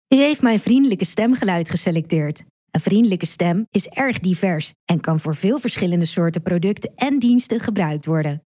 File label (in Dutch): vriendelijk